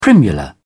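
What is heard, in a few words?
"primula", British English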